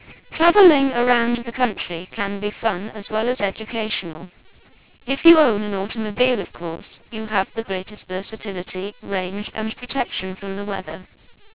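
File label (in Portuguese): Voz codificada em LPC; Codificacao de voz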